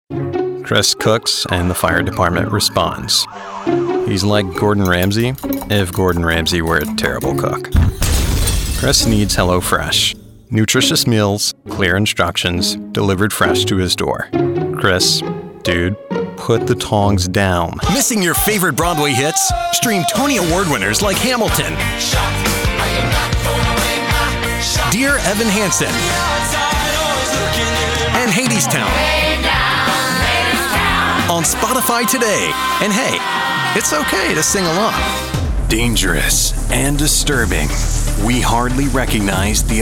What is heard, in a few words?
Commercial